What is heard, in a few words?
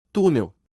"Tunel", en portugues